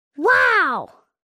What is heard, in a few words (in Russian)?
rebenok ochen vostorzhenno; мультяшные звуки wow